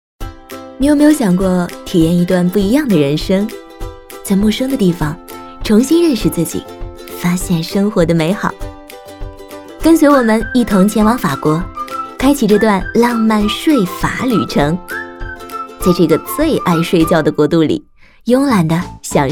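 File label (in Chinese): 女国大音-解说广告-活泼亲切-旅游视频旁白; 文旅配音; 慕思睡眠文化之旅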